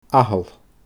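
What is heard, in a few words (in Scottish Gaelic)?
"Athall", Scottish Gaelic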